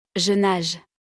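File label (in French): "je nage", French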